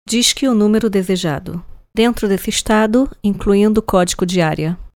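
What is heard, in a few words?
Portuguese Brazilian; קריינות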